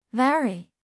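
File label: "very", Chinese; everyone-can-use-english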